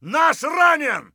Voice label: friendly hit, voice; Escape from Tarkov